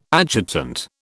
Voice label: "adjutant", British

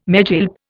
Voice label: "megilp", Indian

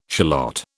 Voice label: "shallot", British

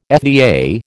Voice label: "FDA", American

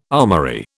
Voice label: "armoury", British

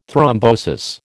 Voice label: "thrombosis", American

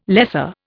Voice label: "lesser", Indian

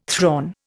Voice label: "thrawn", Indian

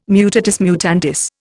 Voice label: "mutatis mutandis", Indian

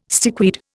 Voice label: "stickweed", Indian

